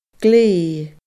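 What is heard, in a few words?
"gle", Scottish Gaelic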